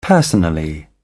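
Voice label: "personally", British English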